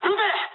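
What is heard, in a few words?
Japanese attack position mood high; War Thunder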